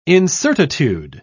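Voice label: "incertitude", British English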